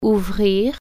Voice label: "open", french

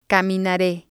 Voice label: "caminare", spanish

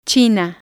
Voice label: "china", spanish

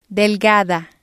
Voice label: "delgada", Spanish

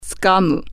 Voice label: grab, japanese

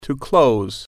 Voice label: "close", English

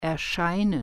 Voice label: "appear", german

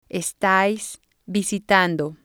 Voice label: "estáis visitando", Spanish

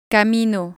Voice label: "camino", spanish

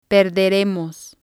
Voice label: "perderemos", spanish

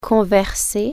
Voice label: converse, french

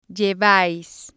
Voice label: "llevais", spanish

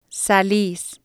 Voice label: "salis", Spanish

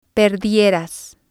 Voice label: "perdieras", spanish

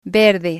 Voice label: "verde", Spanish

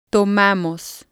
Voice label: "tomamos", Spanish

